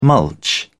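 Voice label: "mulch", British English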